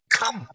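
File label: VoiceActing es, Male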